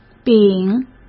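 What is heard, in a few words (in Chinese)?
"饼", Mandarin; chinese flashcards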